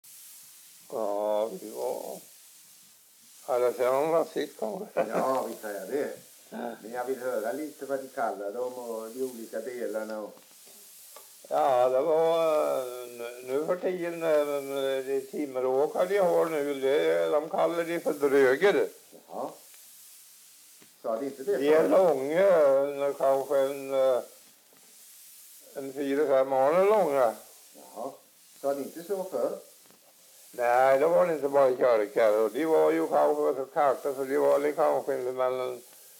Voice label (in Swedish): dialekter; Folkminnen; Svenska sagor